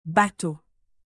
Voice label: "bateau", French native; alphabet fr

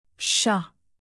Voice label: "chat", French native; alphabet fr

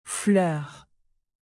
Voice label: "fleur", French native; alphabet fr

